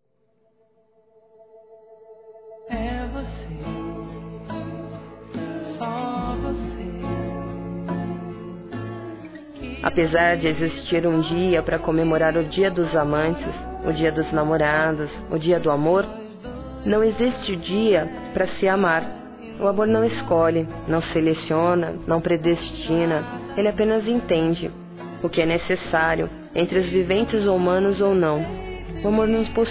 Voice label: Neutro Feminino; Dia Dos namorados; Telemensagens 2017

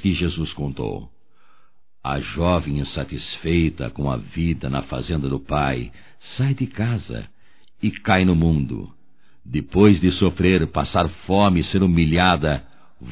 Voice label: Aniversário Filho Masculino; Aniversarios; Telemensagens 2017

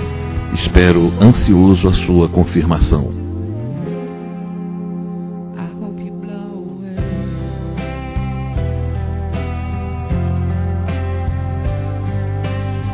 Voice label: Convite para Viajar Masculino; Telemensagens; Convites e Agradecimentos; Telemensagens 2017

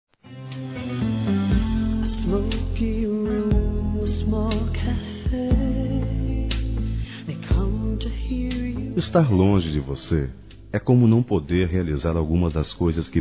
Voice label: SAUDADE ROMANTICO M; Telemensagens; Saudades

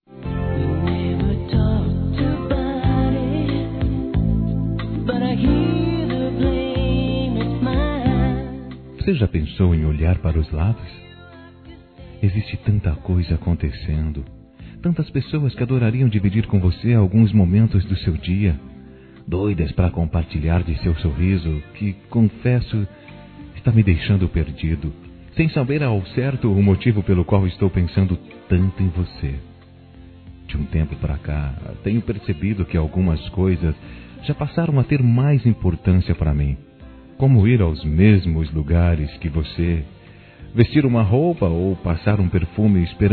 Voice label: Paquera Masculino; Telemensagens 2017

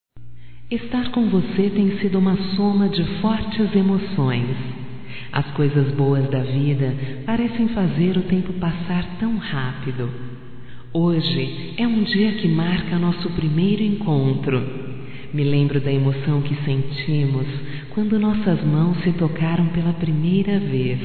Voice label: ANIV NAMORO, fem; Aniversarios; Telemensagens; Telemensagens 2017

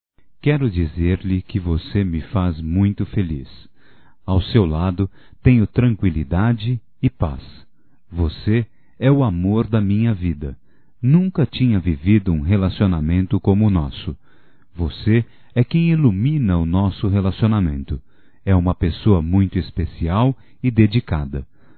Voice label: ROMANTICA SUAVE(M)

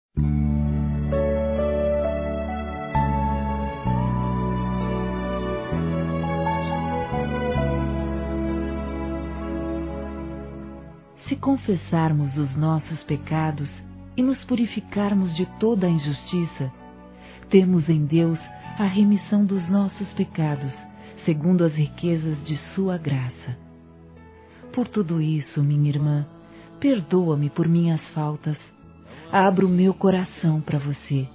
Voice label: PERDÃO AMIGA F, Telemensagens Gospel fem; Telemensagens 2017

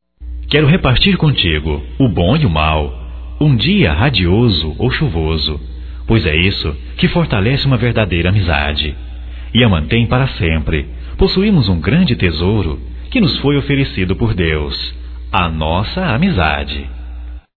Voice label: Amizade Geral Masculino, Só Voz; Telemensagens; Telemensagens 2017

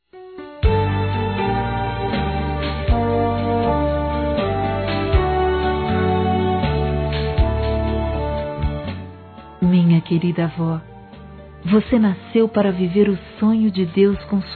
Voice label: Aniversário Avó Evangélico Feminino; Aniversários; Aniv Familiar

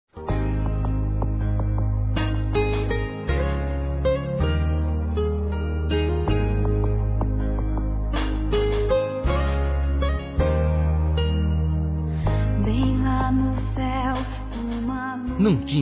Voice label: FILHO, masc; Desculpas; Telemensagens 2017

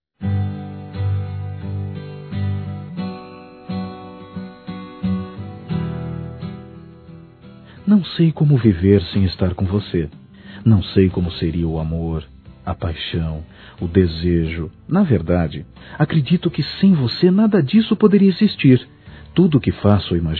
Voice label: QUERO REALIZAR VOCE, Sensuais, masc; Telemensagens

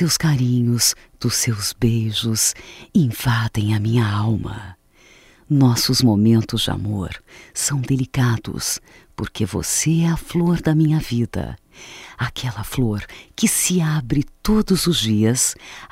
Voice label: Sou Feliz Feminino; Telemensagens 2017; MOMENTOS DE AMOR

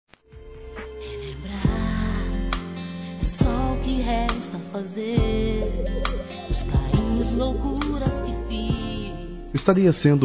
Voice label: ROMANTICO, Telemensagens; P-AMANTES; Telemensagens 2017; Românticas

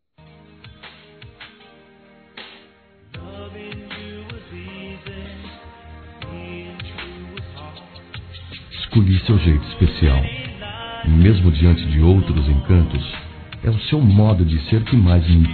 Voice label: Não seja Ciumenta Masculino

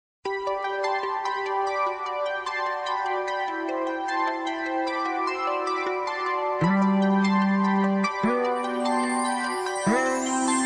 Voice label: Anjo da Guarda da Amizade Feminino

